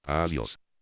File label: adios, spanish